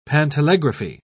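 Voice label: "pantelegraphy", British English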